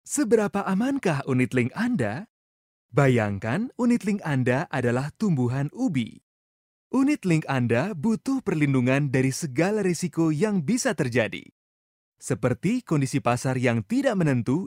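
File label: Indonesian, commercial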